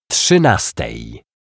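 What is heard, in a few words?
"trzyastej", Polish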